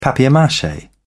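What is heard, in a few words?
"papier-mache", British English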